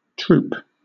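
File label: "troop", British English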